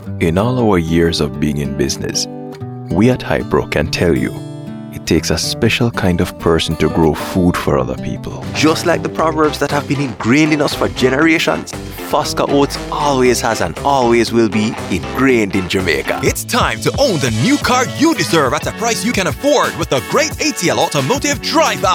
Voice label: Jamaican English